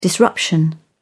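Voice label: "disruption", British English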